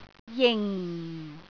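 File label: "jing4", Cantonese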